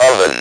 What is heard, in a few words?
speak and spell OVEN; WORDS